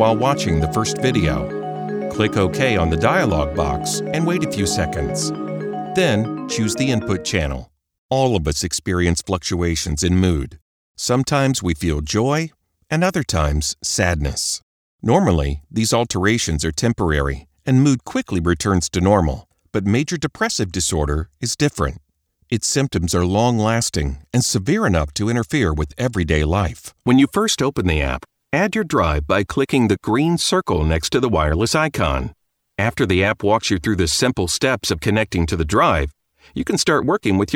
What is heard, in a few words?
E Learning; E Learning Demo